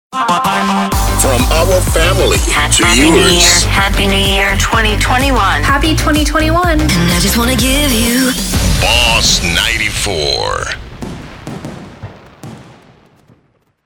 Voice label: english commercial